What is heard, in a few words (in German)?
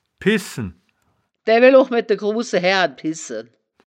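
"pissen", wittlicher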